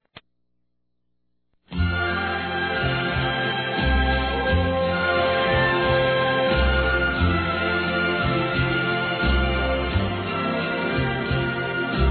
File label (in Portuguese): Bodas de Ouro - Femin, Telemensagens; 50 Anos